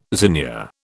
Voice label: "zinnia", British

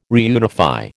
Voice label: "reunify", American

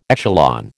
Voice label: "echelon", American

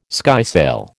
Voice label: "skysail", American

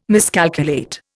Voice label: "miscalculate", Indian

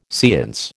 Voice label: "seance", American

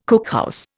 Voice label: "cookhouse", Indian

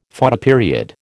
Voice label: "Photoperiod", American

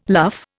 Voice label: "luff", Indian

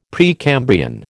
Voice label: "Precambrian", American

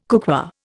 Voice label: "cookware", Indian

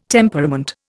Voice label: "temperament", Indian

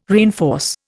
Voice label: "reinforce", Indian

